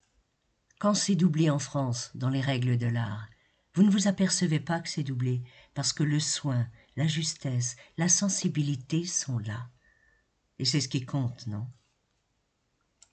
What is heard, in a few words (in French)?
extrait voix, Actrice; doublage